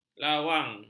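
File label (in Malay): "lawang", Malay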